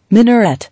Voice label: "Minaret", English